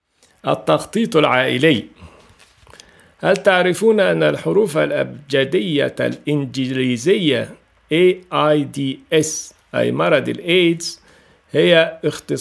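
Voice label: Arabic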